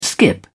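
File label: "skip", British English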